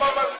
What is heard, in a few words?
Vocal Chop; Ableton Live 12 Lite; Core Library; Jungle